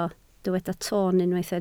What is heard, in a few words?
"toon", Welsh